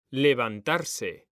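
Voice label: "levantarse", Spanish, male; mekanta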